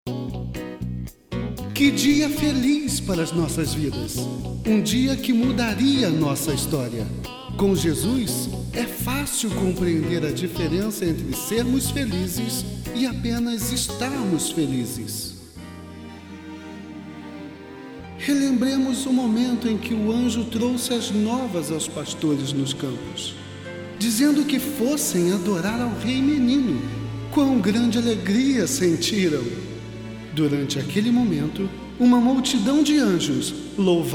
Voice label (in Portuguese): Narracao